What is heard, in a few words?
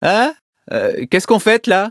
levelup, fr